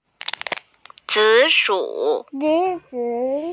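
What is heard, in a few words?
"sweet potato", Mandarin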